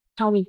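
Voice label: Voice Acting es, female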